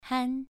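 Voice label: "han", Mandarin Chinese, second tone, pronunciation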